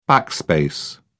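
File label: "backspace", British English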